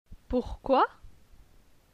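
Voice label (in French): "Pourquoi", French; phonetique; les consonnes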